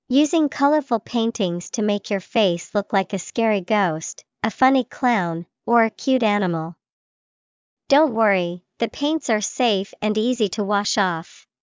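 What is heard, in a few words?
face painting, English vocabulary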